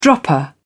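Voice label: "dropper", British English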